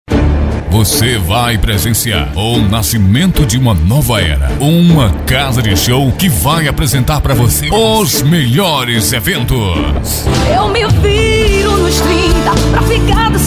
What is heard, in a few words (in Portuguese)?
forro, comercial spot; Anjo Azul; Várzea Grande